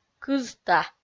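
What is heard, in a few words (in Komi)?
"кызта", Komi, female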